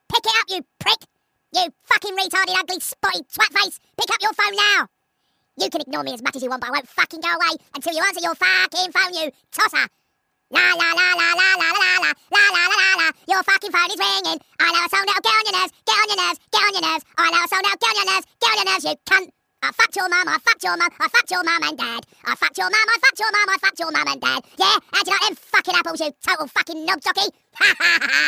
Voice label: rude message, Comedy Ringtones

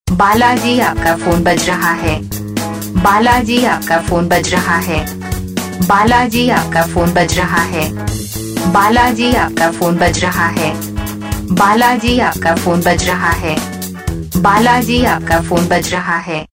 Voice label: balaji apka phone Baja raja hai saying

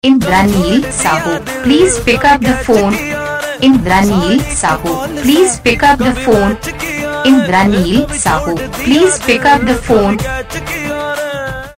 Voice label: pick up the phone saying, Ringtones; Your Name Ringtones A To Z Names

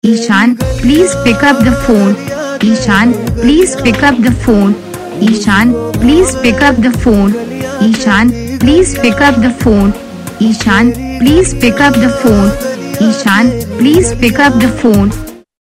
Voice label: pick up phone saying, Ringtones; Your Name Ringtones A To Z Names

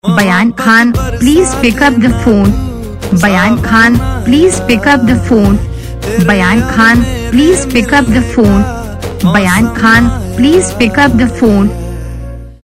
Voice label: pick up the phone saying, Ringtones

